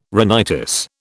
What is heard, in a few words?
"rhinitis", British